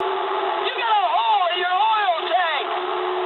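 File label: damaged oil mood medium, speech English; War Thunder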